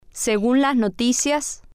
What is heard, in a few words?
"Según Las Noticias", spanish